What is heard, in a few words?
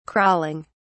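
"crawling", English, British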